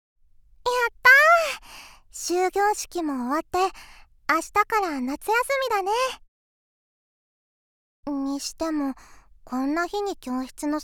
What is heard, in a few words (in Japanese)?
声のみ_ノイズ除去なし